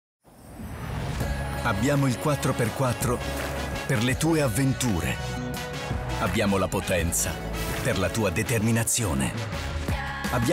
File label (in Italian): toyota new toyota rav4 tv spot